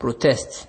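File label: "protest", Nigerian Pidgin, male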